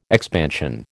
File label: "expansion", American